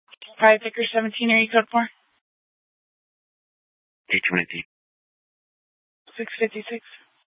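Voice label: police dispatch, scanner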